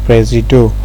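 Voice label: "presido", Nigerian Pidgin, male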